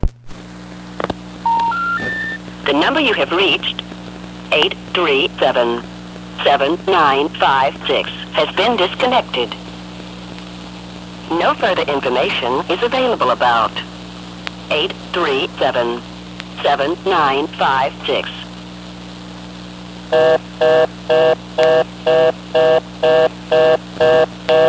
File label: announcer; radioshack